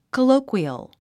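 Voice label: "colloquial", English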